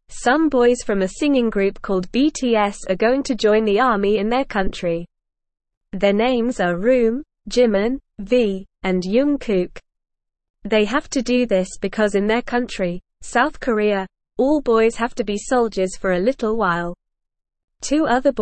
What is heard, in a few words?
Beginner NORMAL Reading, English; English Newsroom